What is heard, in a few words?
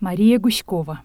russian pronunciation